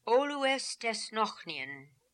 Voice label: "Olooestesnokhnian", pronounciations; Fourth Way; Beelzebub